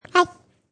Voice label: Toddler Says Hi, Toddler quickly says "hi"; Talking; Voice